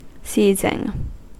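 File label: "seizing", American English; pronunciations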